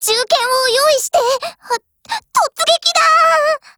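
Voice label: Japanese; 63type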